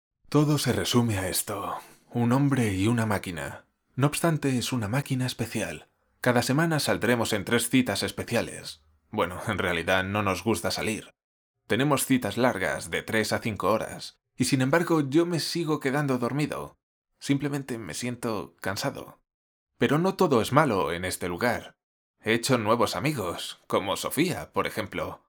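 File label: natural voice, voiceover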